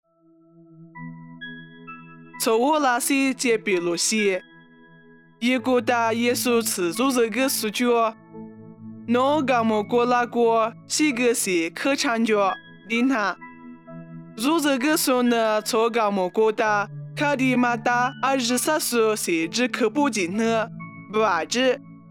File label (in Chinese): 凉山彝语; 以色列迦百农; nuosu